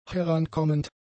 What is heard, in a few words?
"herankommend", German; adjectives